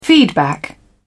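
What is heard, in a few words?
"feedback", British English, dictionary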